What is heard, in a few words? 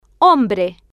"hombre", Spanish